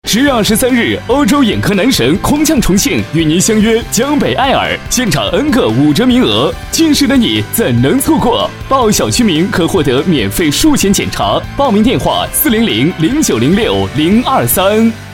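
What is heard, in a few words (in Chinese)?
【广告】爱尔眼科男 大气时尚; 15秒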